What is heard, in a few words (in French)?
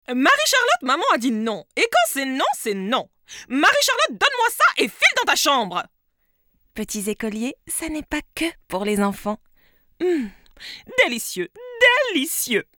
Voix spé Bourgeoise